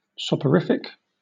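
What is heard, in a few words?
"soporific", British English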